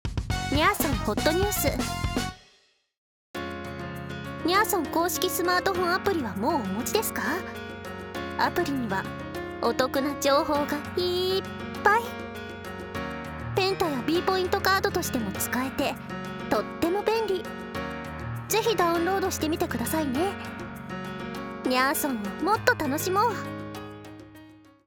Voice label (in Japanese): にゃ～そん店内放送 スマホアプリ編